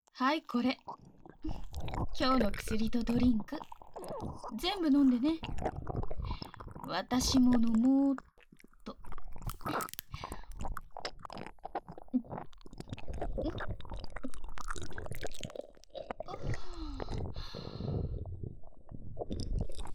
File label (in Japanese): 耳舐めされながらオナホ課お姉さんをバイブ責めし、立ちバック、騎乗位で媚薬ガンギマリHする音声; アダルトグッズ専門企業の新卒モニター研修。オナホ科お姉さんの事務的射精管理～媚薬ローションで強制キメセク交尾～